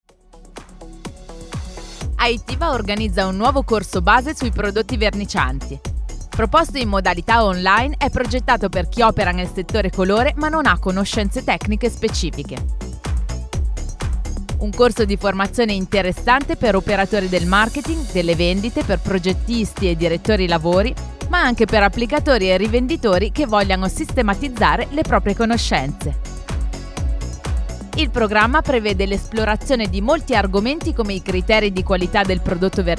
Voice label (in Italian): spot, Radio; Radio Colore; AITIVA; corso base